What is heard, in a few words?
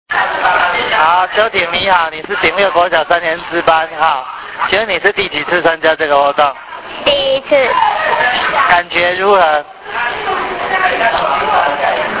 interview; 感動電池小天使對於本次活動的心得感想; 感動電池小天使關懷之旅